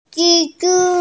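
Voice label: "zhi1zhu1", Mandarin Chinese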